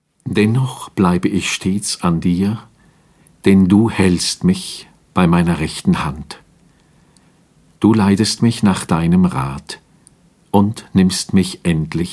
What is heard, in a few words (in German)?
Lesung